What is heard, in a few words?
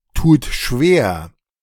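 "tut schwer", German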